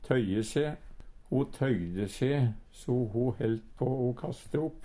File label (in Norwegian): "tøye se", Numedalsmål